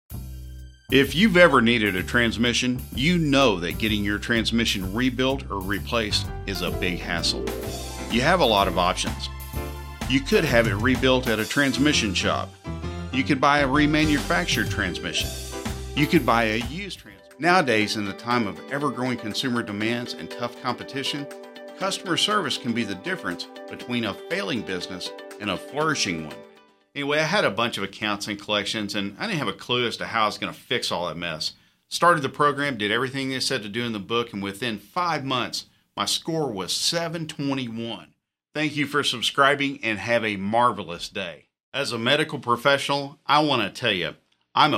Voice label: VO Male